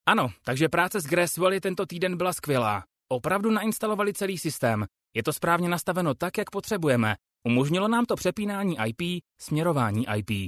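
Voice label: Czech, male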